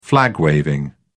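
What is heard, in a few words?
"flag waving", British English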